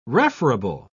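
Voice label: "referable", English, uk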